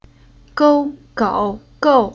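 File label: "Gou", Chinese syllable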